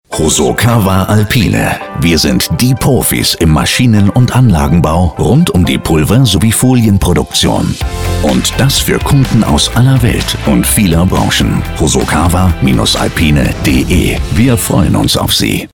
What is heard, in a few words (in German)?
werbung funk; MASCHINEN ANLAGENBAU; HOSOKAWA ALPINE